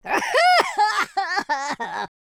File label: lose loop, Pirate